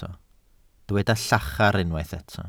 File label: "llachar", Welsh